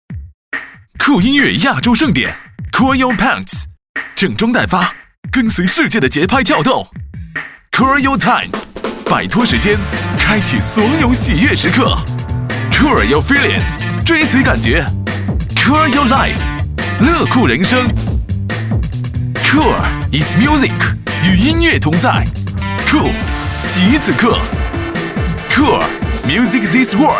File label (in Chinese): 国语男 专题片 颁奖; 酷音乐亚洲盛典